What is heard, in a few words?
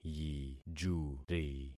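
"yijuri", concatenated syllables; Affixing experiment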